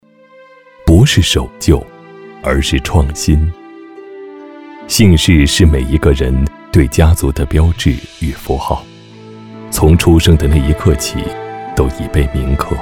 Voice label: 男 纪录片 茶文化

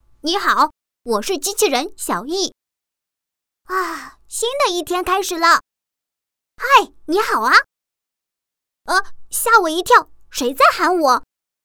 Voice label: 女 男童声 机器人

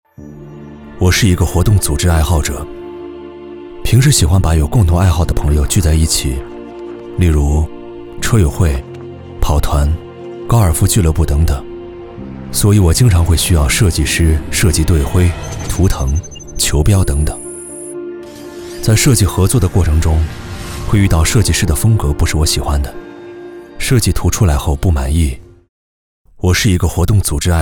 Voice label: 男 独白 自述

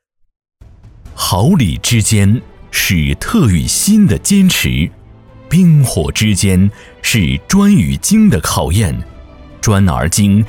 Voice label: 男 企业宣传片; 安阳市铁路器材有限责任公司

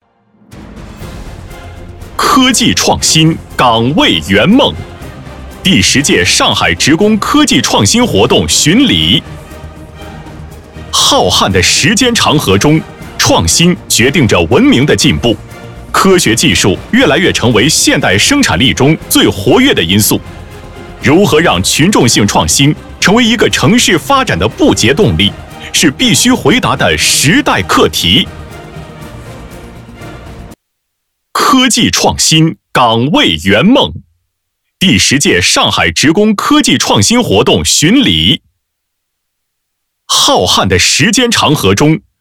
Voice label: 男 宣传片 激情 科技创新

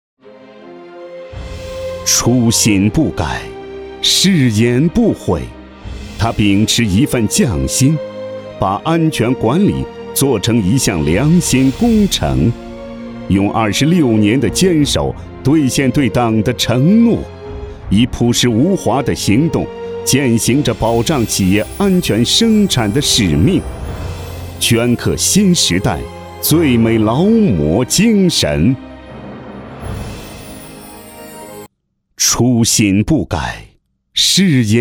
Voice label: 男 专题 劳模颁奖

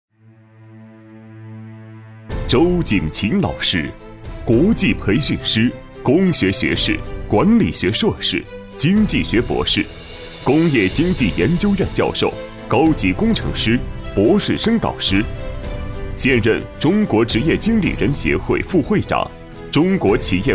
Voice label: 男 人物 严肃正式 老师介绍

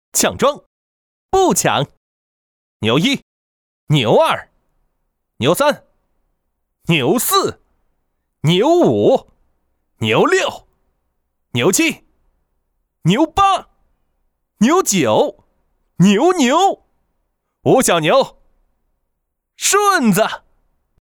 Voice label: 男, 棋牌游戏

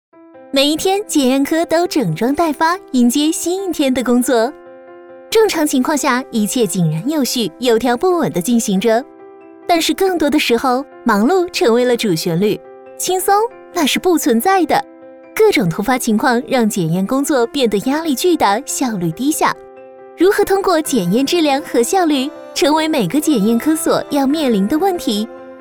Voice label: 女; 飞碟; 检验科

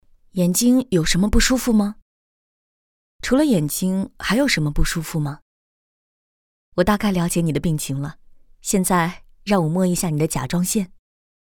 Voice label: 角色医生试音

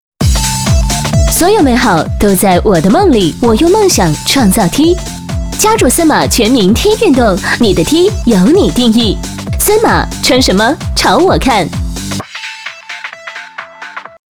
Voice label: 女 广告 服饰类; 森马

